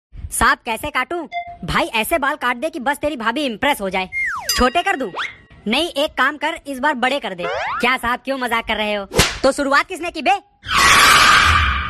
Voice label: Funny Dialogue

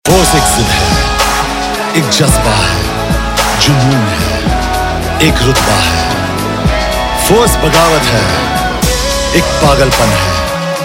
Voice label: Dialogue Ringtone, Bollywood; Force 2

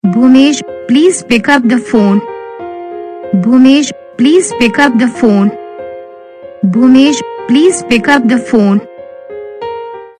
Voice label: Bhumesh pick up phone saying

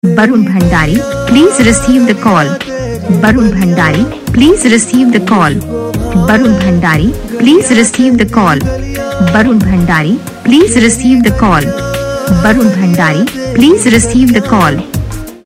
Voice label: plesase recived da call saying, Ringtones

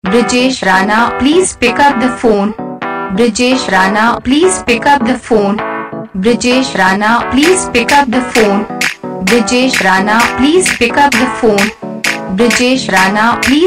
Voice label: pick up the phone saying, Ringtones

